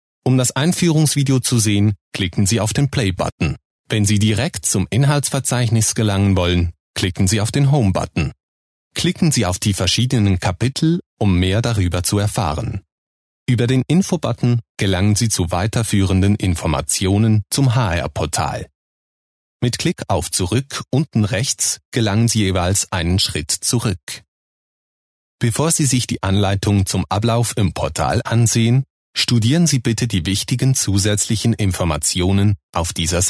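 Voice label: German Swiss, male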